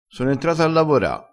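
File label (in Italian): "n ho a caro", dialettale crespinese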